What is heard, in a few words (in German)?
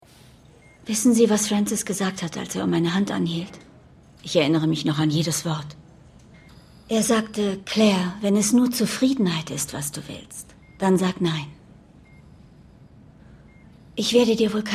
erzaehlung